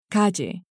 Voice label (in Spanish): "calle", Spanish